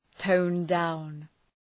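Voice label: "tone down", English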